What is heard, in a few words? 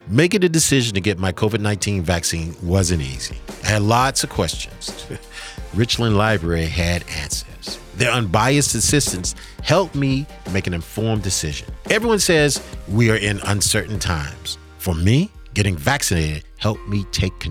Spot with Music; Spotify